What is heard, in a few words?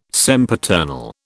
"sempiternal", British